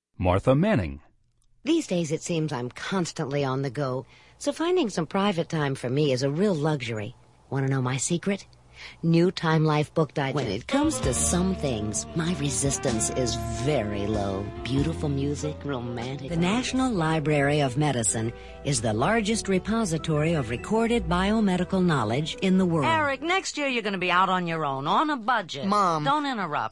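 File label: Female Talent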